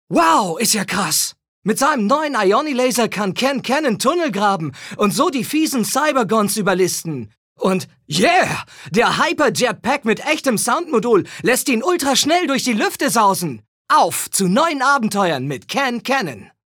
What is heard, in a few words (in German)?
Dynamisch